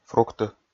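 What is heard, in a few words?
"фрукты", Komi, male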